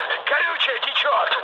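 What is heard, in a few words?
tank damaged, mood med, Russian; War Thunder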